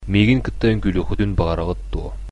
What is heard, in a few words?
dance, sakha